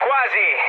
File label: bomb failure mood low, speech it; War Thunder